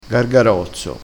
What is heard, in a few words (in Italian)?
"gargarozzo", crespinese